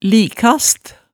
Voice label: "likast", hemsedalsmaalet tale

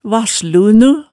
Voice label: "vass-lunu", hemsedalsmaalet tale

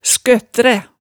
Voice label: "skøttræ", hemsedalsmaalet, tale

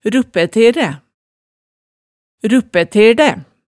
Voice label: "ruppæteræ", Hemsedal

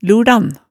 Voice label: "lurdan", hemsedalsmaalet tale

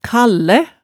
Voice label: "kallæ", hemsedalsmaalet tale

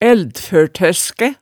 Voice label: "ældførtørskæ", hemsedalsmaalet tale

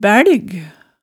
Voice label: "bælg", hemsedalsmaalet tale

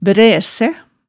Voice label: "bræsæ", hemsedalsmaalet tale

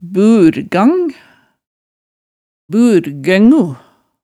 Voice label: "burgang, burgøngu", hemsedalsmaalet tale

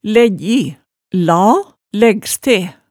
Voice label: "leggji", hemsedalsmaalet tale

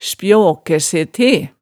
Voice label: "spjaakæ se te", hemsedalsmaalet

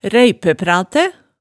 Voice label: "ræiæpratæ", Hemsedal

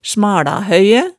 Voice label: "smalahøyæ", hemsedalsmaalet

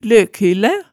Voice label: "løkhyllæ", hemsedalsmaalet tale

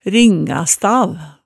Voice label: "ringastav", hemsedalsmaalet, tale

